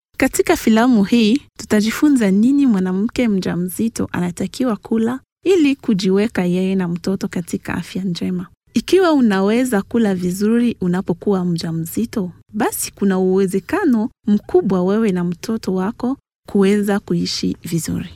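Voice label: Swahili Congolese Female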